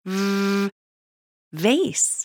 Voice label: babys sound audio VASE

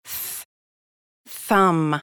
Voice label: babys sound audio THUMB

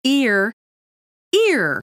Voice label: babys sound audio EAR

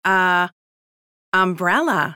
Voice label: babys sound audio UMBRELLA

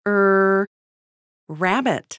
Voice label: babys sound audio RABBIT

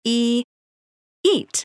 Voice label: babys sound audio EAT

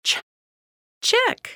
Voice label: babys sound audio CHICK

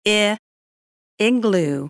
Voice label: babys sound audio IGLOO

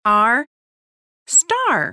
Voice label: babys sound audio STAR

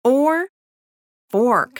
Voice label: babys sound audio FORK

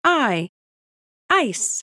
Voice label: babys sound audio ICE

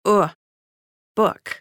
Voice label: babys sound audio BOOK

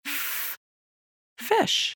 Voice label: babys sound audio FISH

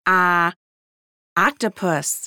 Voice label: babys sound audio OCTOPUS

